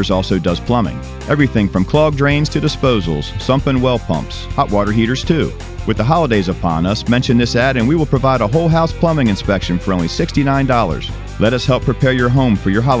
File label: heating commercial